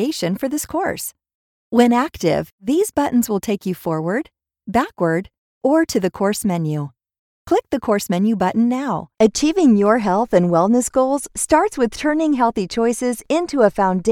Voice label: E-Learning